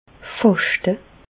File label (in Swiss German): "faschte", Bas Rhin; jeûner; medecine